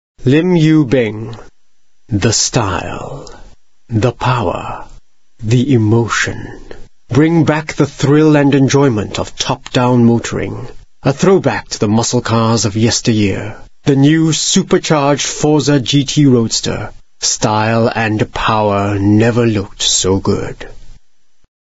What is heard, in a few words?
Asian English